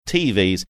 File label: saying tvs slight twang